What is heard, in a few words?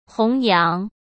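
"弘扬", Mandarin Chinese; chinese-flashcards; hsk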